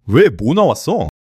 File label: fluster